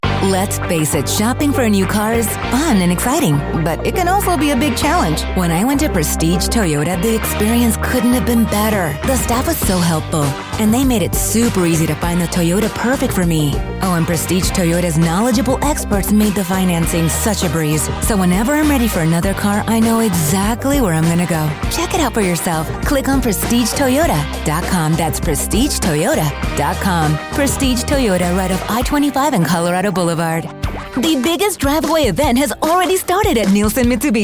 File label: English Automotive